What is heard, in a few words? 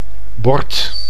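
"bord", Dutch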